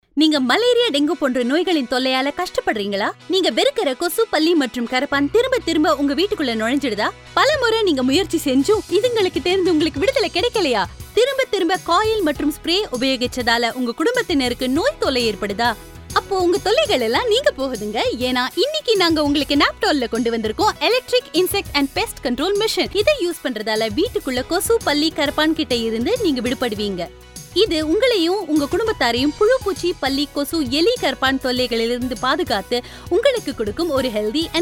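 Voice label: Tamil TVC, female Tamil voice over; Attractive, clear, sharp, perfect voice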